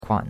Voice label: "kuan3", chinois; Cours de Chinois

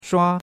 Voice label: "shua1", chinois; Cours-de-Chinois

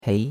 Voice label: "hei2", chinois; Cours-de-Chinois

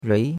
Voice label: "rui2", chinois; Cours-de-Chinois

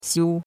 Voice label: "xiu1", chinois; Cours-de-Chinois

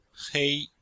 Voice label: "hei1", chinois; Cours de Chinois

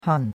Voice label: "han4", chinois; Cours de Chinois

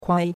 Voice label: "kuai1", chinois; Cours-de-Chinois

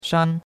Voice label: "shan1", chinois; Cours-de-Chinois

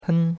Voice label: "hen1", chinois; Cours-de-Chinois

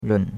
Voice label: "run3", chinois; Cours-de-Chinois

